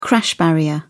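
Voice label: "crash barrier", English, British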